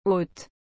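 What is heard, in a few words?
"haute", French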